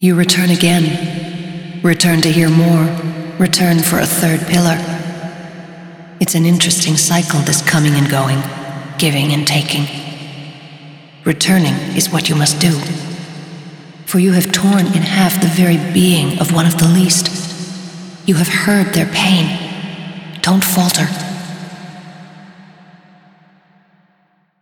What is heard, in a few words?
Speech, eng; Yeesha; moul